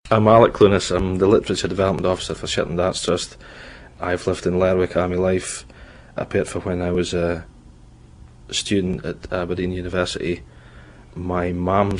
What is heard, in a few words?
lerwick, shetland dialect; area sounds